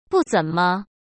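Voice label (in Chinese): "不怎么", Mandarin Chinese; hsk; chinese-flashcards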